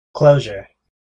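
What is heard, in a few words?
"closure", US English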